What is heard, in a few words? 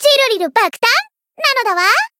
voice jp; thillelille